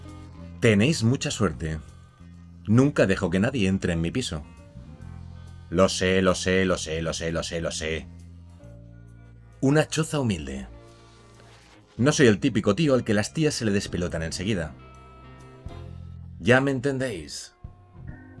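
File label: impersonations, Español, Hombre; Interpretaciones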